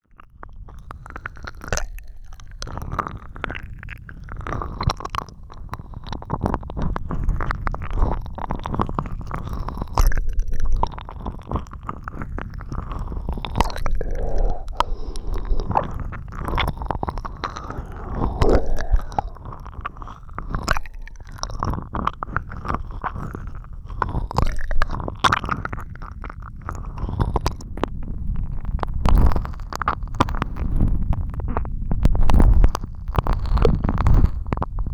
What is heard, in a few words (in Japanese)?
イくイくブレンド, ASMR